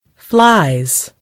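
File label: "flies", British English; gcompris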